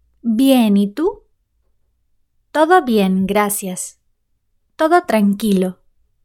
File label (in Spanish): bien y tu, Spanish